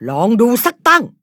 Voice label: level up, th; Cookie Run

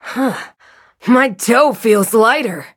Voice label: levelup en; cookie run

